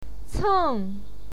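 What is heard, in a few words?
"ceng", Mandarin Chinese, fourth tone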